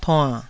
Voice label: "tuann3", taiwanese